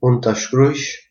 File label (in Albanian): write, albanian gheg